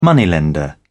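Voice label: "moneylender", English, British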